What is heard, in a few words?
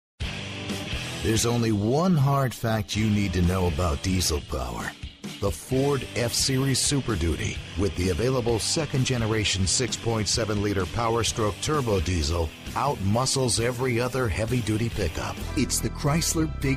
english american automotive